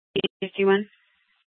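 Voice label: Police Department Dispatch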